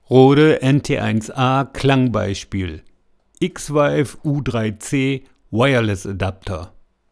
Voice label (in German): NT1A Stimme Kabel; XVive Mikro